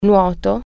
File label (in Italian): "swimming", Italian